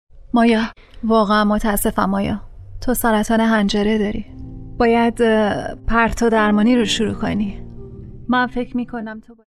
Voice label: farsi f character; voice demos